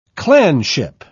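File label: "clanship", British English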